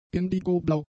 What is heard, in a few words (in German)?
"indigoblau", German; adjectives